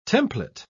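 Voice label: "templet", British English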